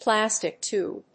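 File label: "plastic tube", English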